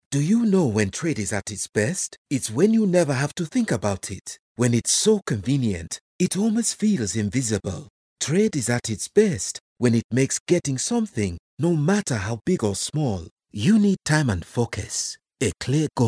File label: African English